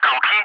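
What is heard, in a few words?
"Kolkhi", English, ATC callsign; DCS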